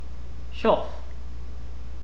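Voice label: "chough", English, British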